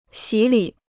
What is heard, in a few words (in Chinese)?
"洗礼", Chinese